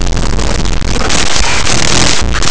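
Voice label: "absoluto", Spanish; laboratori fonetica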